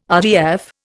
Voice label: "RDF", Indian